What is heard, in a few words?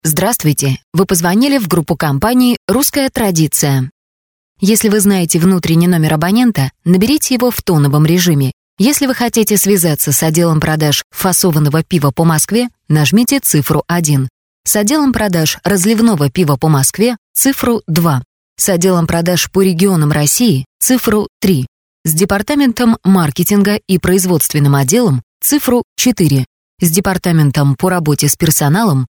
golosovoe privetstvie ivr; Avtootvetchik